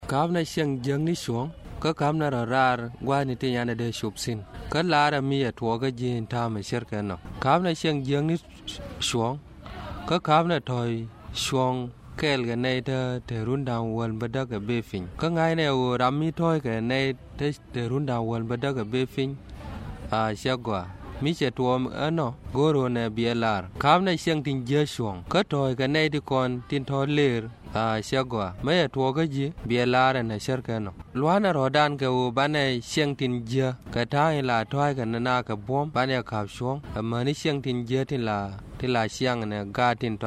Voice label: Radio Jingle Nuer; ROA